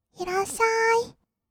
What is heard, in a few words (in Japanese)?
"いらっしゃーい", kawaii voice; 挨拶